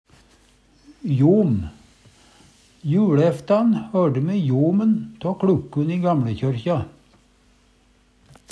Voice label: jom, Numedalsmål; jomen; Substantiv hankjønn

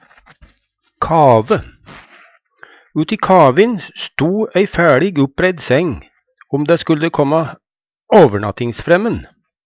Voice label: kave, Numedalsmål

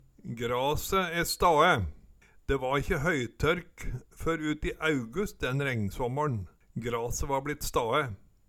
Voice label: grase e stae, Numedalsmål

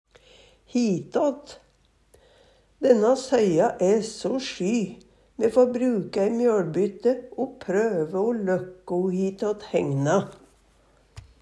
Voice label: "hitatt", Numedalsmål

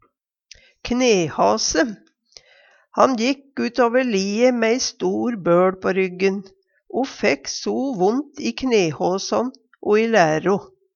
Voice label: knehase, Numedalsmål; Han jekk utover lie mæ ei stor bøL på ryggen o fekk so vont i knehasan o i låro.

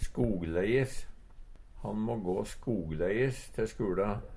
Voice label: skogleies, Numedalsmål; gjennom skogen, ingen veig; Han må gå skogleies te skuLa.; Adverb

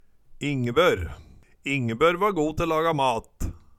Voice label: "Ingebør", Numedalsmål; Særnamn (personnamn); Ingeborg, Ingebjørg

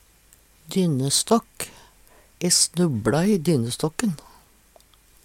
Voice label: "dynnestakk", Numedalsmål

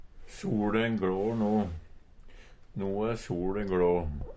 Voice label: "soLe gLår no", Numedalsmål; Eksempel på bruk No e soLe glå.

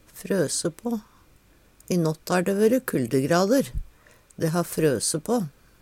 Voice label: frose pa, Numedalsmål

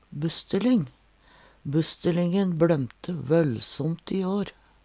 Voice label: "busstelyng", Numedalsmål

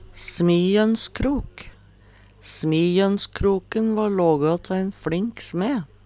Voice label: smijønnskrok, Numedalsmål; Eksempel på bruk Smijønnskroken va lågå tå ein fLink sme.

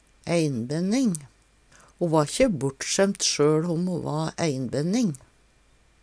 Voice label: einbenning, Numedalsmål

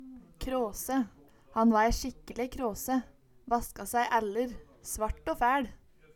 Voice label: "kråse", Numedalsmål

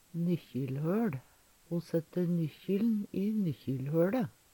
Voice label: nykkjilhøL, Numedalsmål; Substantiv inkjekjønn; Veggli

